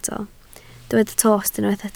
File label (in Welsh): "tost", Welsh